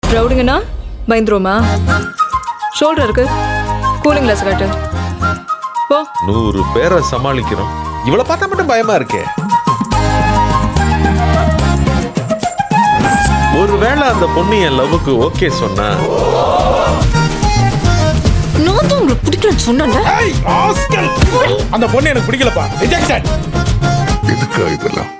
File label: Tamil Love Dialogue; Sulthan